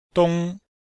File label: "dong1", Chinese